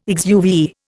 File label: "exuviae", Indian